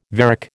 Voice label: "varec", American